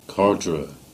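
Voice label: "Cardrew", Cornish; place-names; Caerdro 1311 (Gover, 1948)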